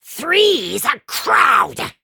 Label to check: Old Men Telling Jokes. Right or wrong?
Wrong